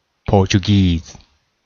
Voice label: "Portuguese", pronunciation